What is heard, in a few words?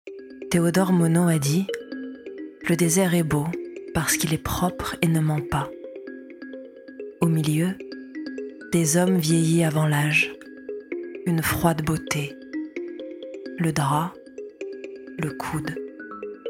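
French, female, Docu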